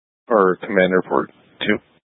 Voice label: Tactical Incidents, scanner